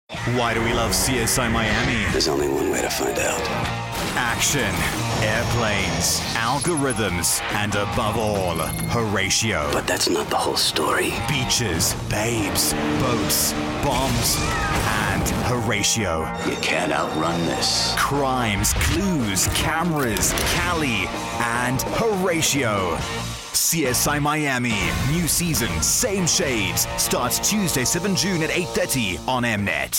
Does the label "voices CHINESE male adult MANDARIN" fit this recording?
No